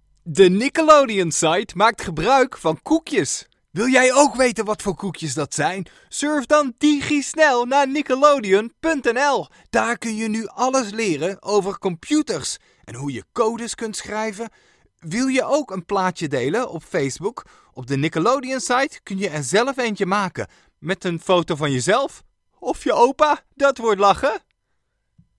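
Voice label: Dutch, Commercial; GoLocalise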